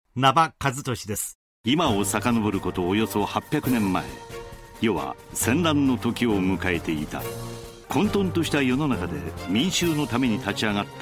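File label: male tv program, English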